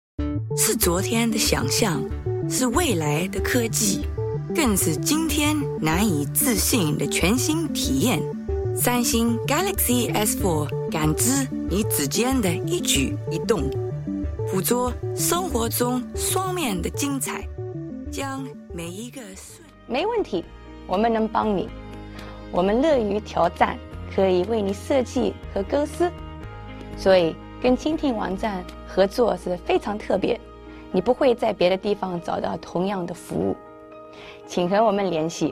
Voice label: Mandarin Voice Demo

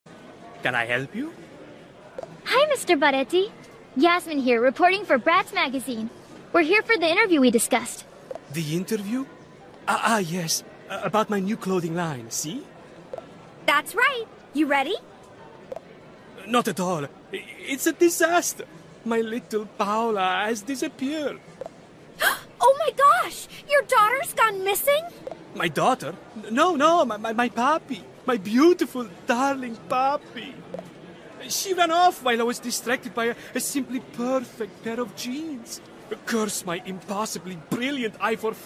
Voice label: Narration; BBC Bite Size; Earth Science (Narration)

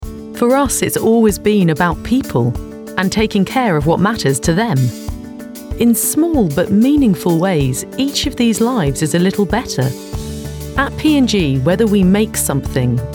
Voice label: Corporate

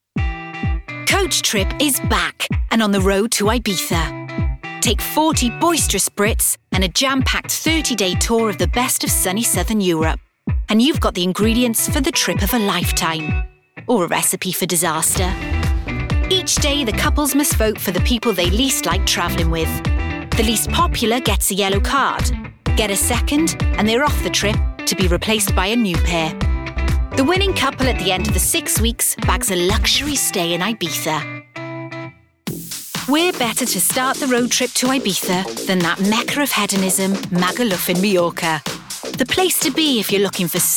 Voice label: doco

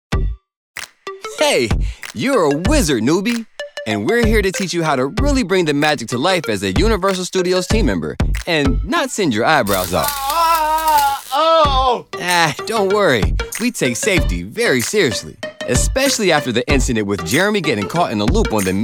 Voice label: E-Learning

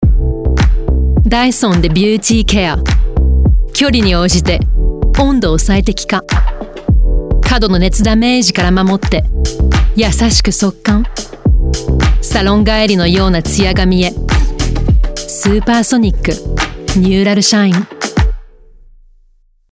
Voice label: Japanese Language; Dyson

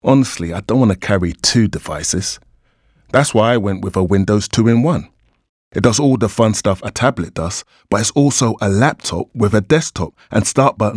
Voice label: natural

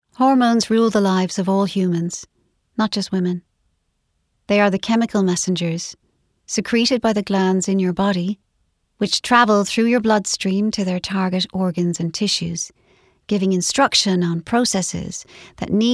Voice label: Audiobook

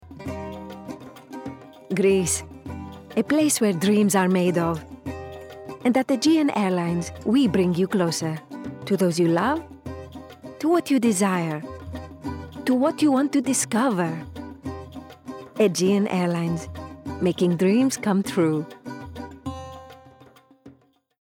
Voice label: VO Reel; Aegean

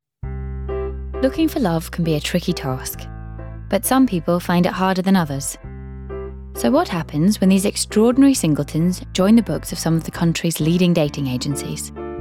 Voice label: Narration

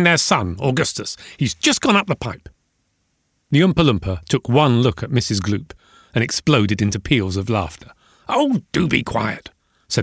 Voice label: Audiobook, Down-the-earth, Playful and Versatile

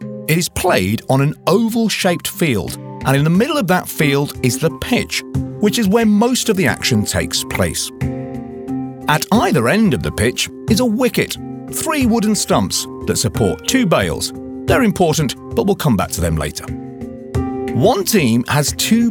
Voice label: Cricket Explainer

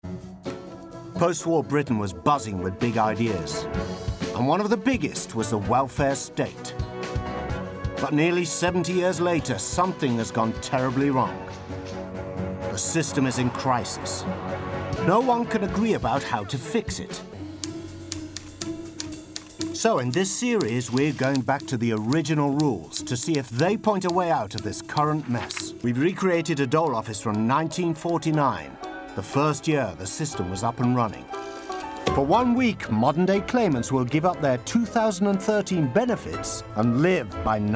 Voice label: narration; Welfare State

